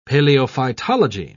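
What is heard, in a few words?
"paleophytology", British English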